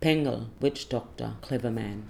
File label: "pangel" witchdoctor clever man